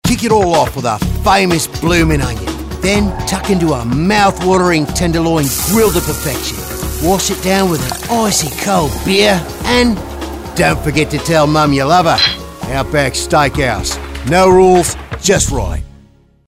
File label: australian